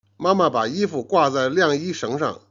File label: "jian", Chinese